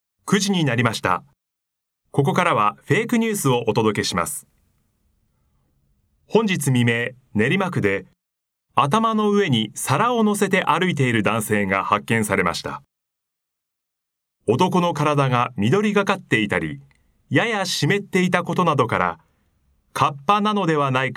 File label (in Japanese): nare news